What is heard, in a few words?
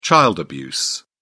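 "child abuse", British English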